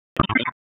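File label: Police Northeast District Tactical, P25; Raleigh; wake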